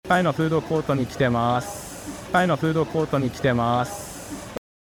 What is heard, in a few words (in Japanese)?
ノイズのある音声